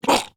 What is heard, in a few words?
Pain Grineer Ghoul Sarge, en; Grineer Ghoul Drill Sargeant; Battle Barks